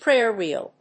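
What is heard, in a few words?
"prayer wheel", English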